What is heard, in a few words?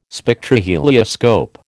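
"Spectrohelioscope", American English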